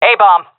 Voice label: loc a, seal fem; vo; Counter Strike Model Pack; CS:GO

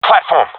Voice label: platform, professional epic; player vo; Counter Strike Model Pack; CS:GO

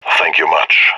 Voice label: thankful; agents; leet epic

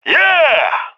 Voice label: cheer; Counter Strike; CS:GO; leet epic

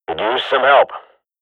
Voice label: radio bot under fire, swat; Counter Strike Model Pack; CS:GO

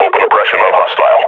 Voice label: firing, combine grunt; Half Life Model Pack

